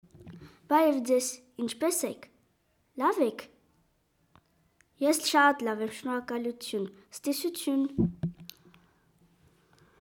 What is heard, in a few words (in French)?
Armenien